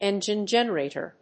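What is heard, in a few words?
"ENGINE GENERATOR", English; エンジン発電機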